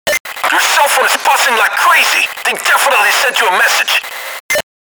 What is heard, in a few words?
Buzzing Like Crazy (text message tone); Payday The Heist; Bain